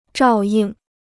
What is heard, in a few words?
"照应", Chinese